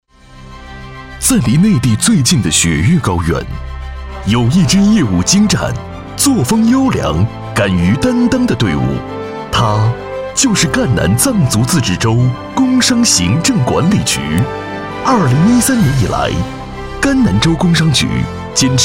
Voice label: 男 甘南工商局（大气浑厚）

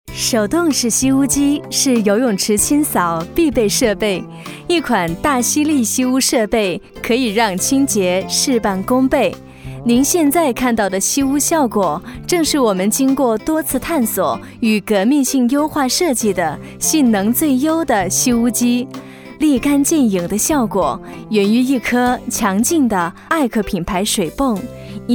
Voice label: 女 吸污机（甜美 柔和）

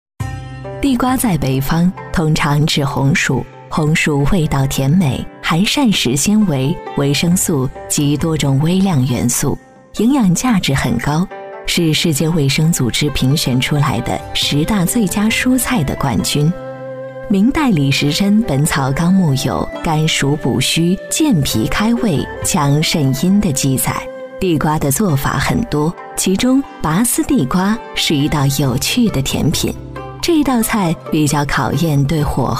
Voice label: 女 古典; 地瓜

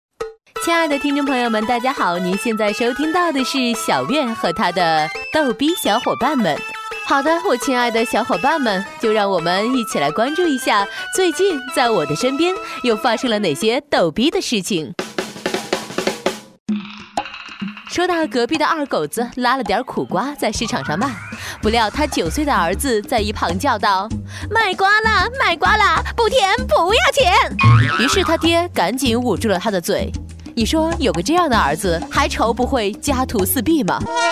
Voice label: 女 节目笑话

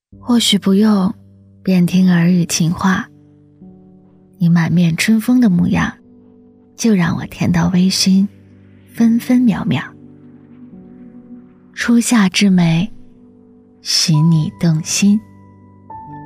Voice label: 女 初恋小女生

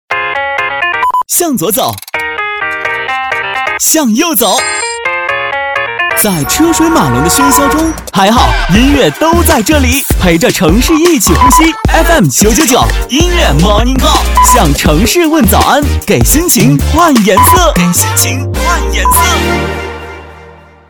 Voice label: 男 片花-年轻时尚; 飞碟说

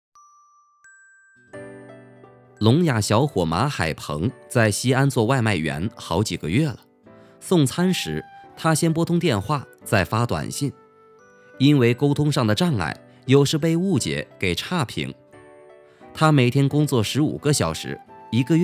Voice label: 男86 人物宣传-讲述

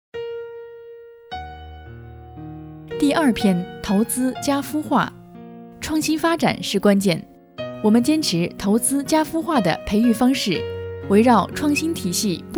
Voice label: 女26; 拓新之路

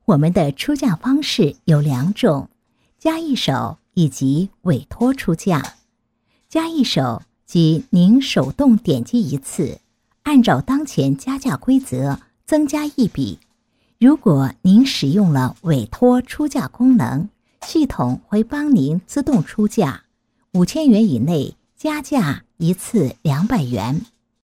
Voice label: 女 顺天达拍卖APP使用教程

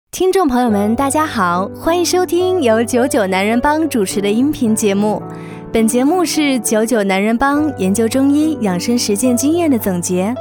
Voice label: 女 男性健康（亲切自然）

